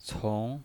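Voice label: "cong", Mandarin Chinese pinyin voice, second tone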